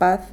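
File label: "paz", Spanish, Madrid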